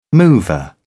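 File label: "mover", British English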